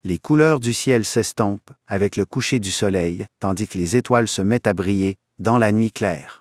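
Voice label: Canadian French; iOS 26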